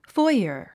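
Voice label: "foyer", English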